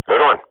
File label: radio bot nice shot, Counter-Strike swat; Global Offensive; player vo